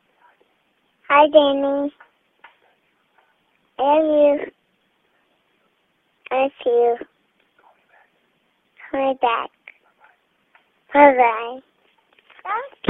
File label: voicemail